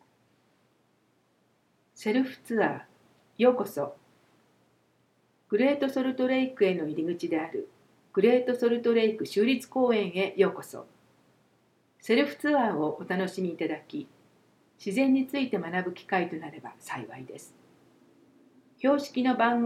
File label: Japanese Audio Intro